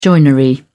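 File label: "joinery", British English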